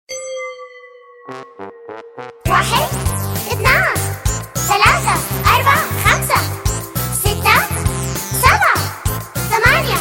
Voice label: rhyme arabic